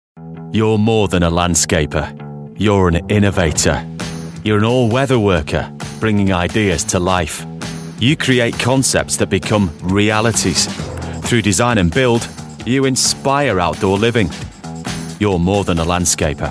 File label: northern builders merchant ad